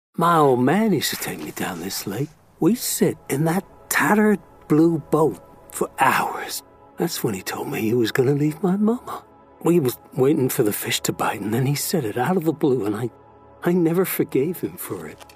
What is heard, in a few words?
Gaming Standard American